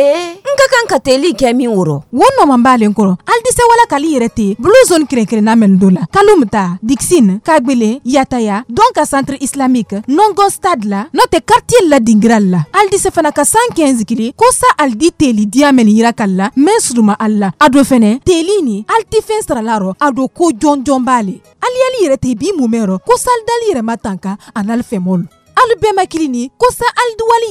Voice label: Spot COVID vaccination malinké